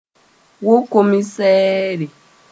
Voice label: "wokomsɛɛre", moore

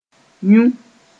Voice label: "yũ", moore

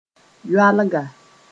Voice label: "yoalga", moore; shrub sp

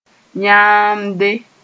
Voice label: "yãamde", moore; plants

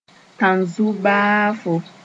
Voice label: "tãn-zu-baafo", moore

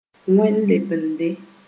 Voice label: "wẽnd-lebende", Moore

